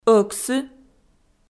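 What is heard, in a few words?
"ӧксы", Komi, female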